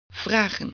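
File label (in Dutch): "vragen", Dutch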